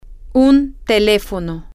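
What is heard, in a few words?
Spanish, telephone, female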